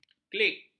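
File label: "klik", Malay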